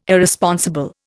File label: "Irresponsible", Indian